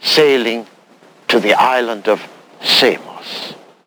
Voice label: LoFi Phrases, LoFi Vocals; Vengeance Samples